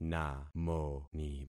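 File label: "namoni", concatenated syllables; Affixing experiment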